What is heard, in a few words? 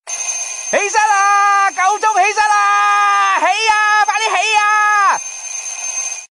Annoying Boy